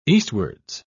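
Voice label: "eastwards", British English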